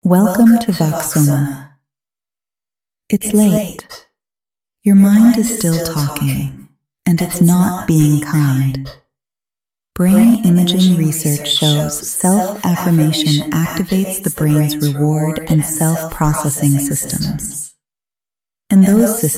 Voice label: hero voiceover